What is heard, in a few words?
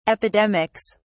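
"epidemics", British English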